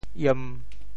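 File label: "iem1", 醃, Teochew; 潮州; yān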